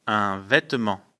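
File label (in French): "vetement", French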